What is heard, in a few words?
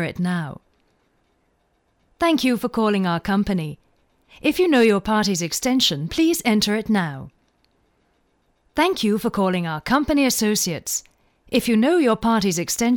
elearning tel on hold; Englisch UK f.